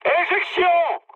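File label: French bailout mood high; War Thunder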